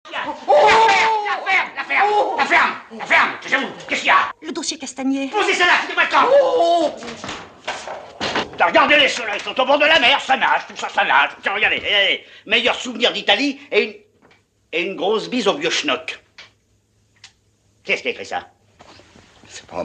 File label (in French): angry; petit baigneur